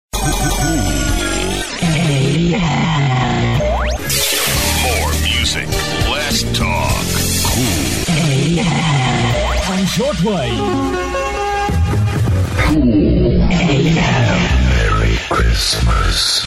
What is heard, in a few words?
Radio Jingle Merry Xmas; CoolAM; Xmas Greets von Cool AM / Cool TV